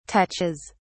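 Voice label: "touches", English, British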